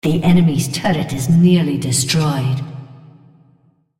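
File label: female On Turret Almost Kill Enemy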